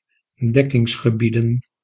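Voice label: "dekkingsgebieden", Dutch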